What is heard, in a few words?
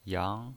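"yang" Mandarin Chinese pinyin voice, second tone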